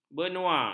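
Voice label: "benua", Malay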